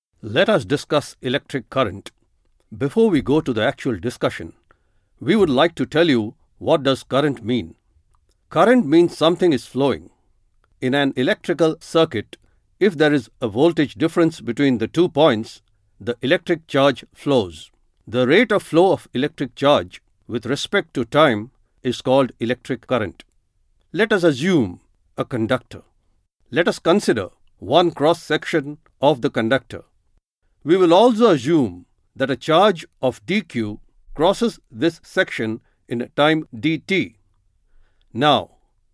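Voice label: e-learn, English, male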